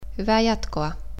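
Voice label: Take Care, Finnish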